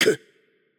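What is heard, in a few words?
Japanese hurt light voice; bloom minigame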